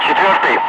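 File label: Russian loud; Ka-50; Wingman; DCS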